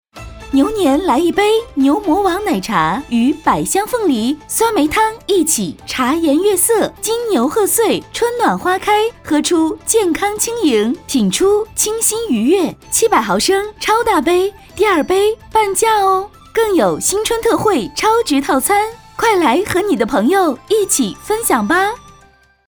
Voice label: 女 广告 促销 奶茶; 牛魔王

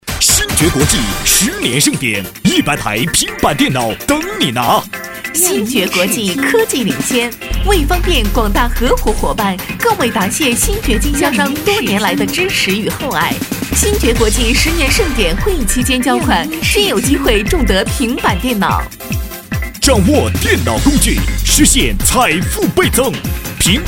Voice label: 对播 电视购物男女

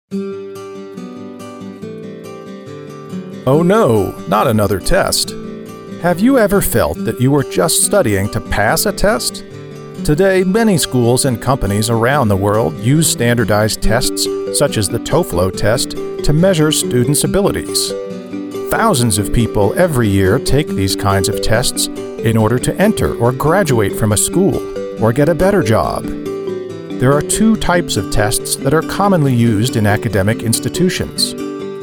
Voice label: 外籍男英 外语 美式英语 轻松; TOFEL

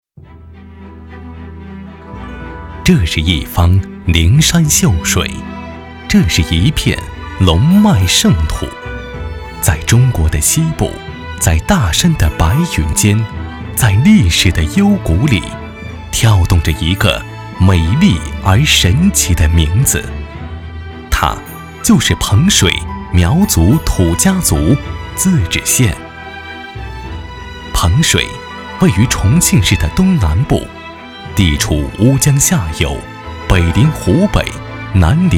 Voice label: 宣传片 城市 磁性, 男

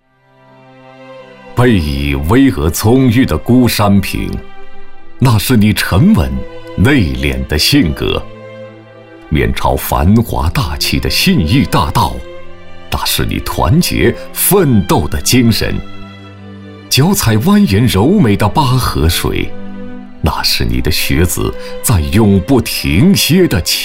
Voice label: 男国 专题 学校 磁性; 学校专题片

